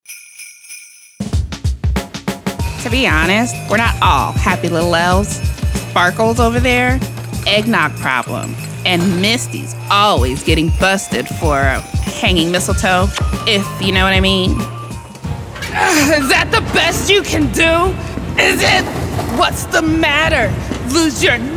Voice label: Animation